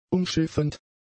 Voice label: "umschiffend", German; adjectives

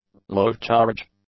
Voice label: "low-charge", English; adjectives

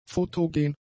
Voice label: "fotogen", German; adjectives

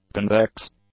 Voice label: "convex", English; adjectives

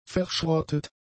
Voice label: "verschrottet", German; adjectives

